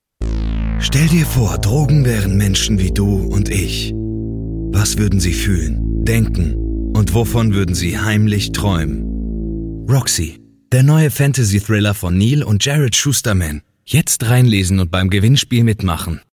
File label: Trailer; Roxy